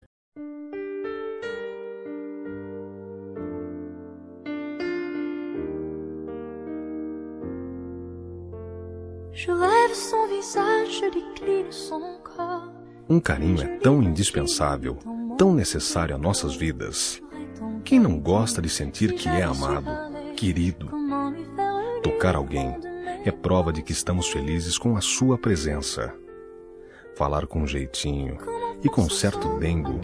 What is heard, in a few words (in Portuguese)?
ROM SEU CARINHOS MASC